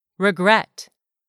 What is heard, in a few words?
"REGRET", english; 3000 words